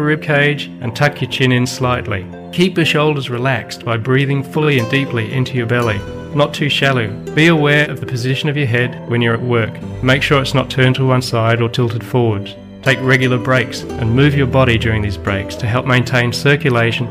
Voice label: Radio Ad Wry or Stiff Neck